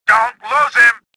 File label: suspicious; XBOX